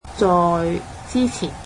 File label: "in front of", Cantonese